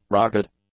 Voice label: "rugged", English; adjectives